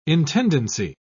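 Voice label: "intendancy", British English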